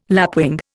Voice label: "lapwing", Indian